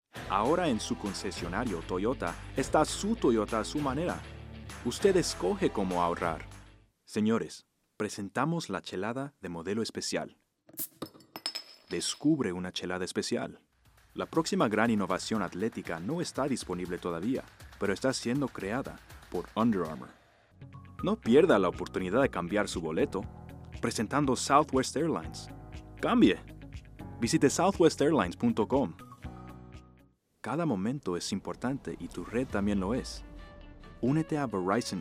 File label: Voiceover, Foreign Language, Spanish; chicago